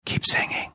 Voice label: whispering keep singing